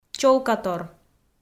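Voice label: "чолкатор", Komi-Zyrian, female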